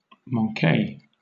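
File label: "manque", British English; pronunciations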